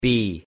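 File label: "b", English; pronunciation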